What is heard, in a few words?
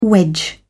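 "wedge", British English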